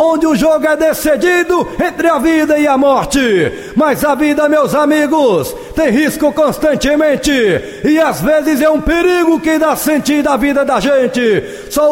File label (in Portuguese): locutor de rodeio poesia